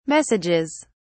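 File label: "messages", British English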